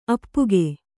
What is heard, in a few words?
"ಅಪ್ಪುಗೈ", Kannada